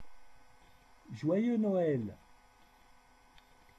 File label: French, christmas